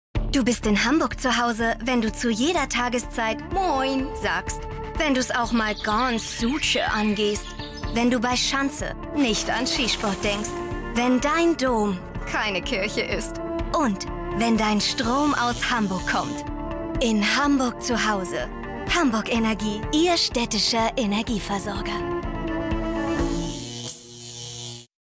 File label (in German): Werbung Energieversorger